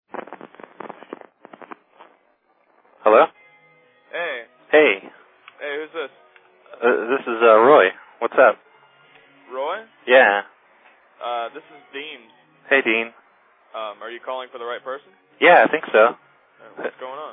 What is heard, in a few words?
jackalope call, Prank Calls; Phone Losers of America Media Pack 2014; Miscellaneous Pranks